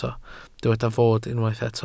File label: "fod", Welsh